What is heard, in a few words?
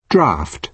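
"draft", British English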